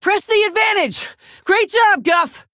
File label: Female Orc